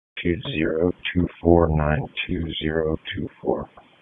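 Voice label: Raleigh Police Southeast District Dispatch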